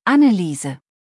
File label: "Anneliese", deutsch; maedchennamen